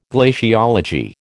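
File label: "glaciology", American English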